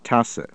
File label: cup, German